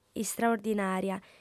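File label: "istraordinària", female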